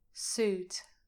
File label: "suit", English